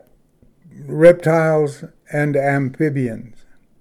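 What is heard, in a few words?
"reptiles amphibians", English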